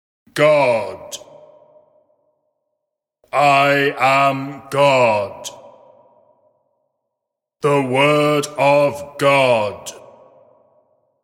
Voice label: The words ‘God’ ‘I am God’ and ‘The word Of God’ spoken in commanding voice; Recorded Voice